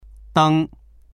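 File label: "deng1", Chinese; 灯; 明かり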